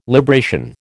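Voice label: "libration", American English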